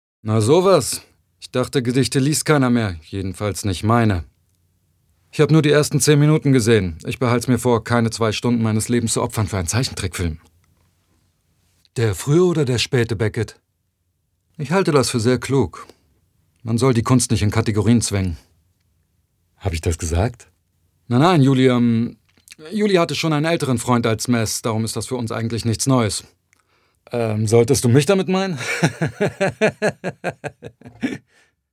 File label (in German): Synchron Skandinavisch